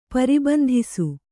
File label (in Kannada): "ಪರಿಬಂಧಿಸು", Kannada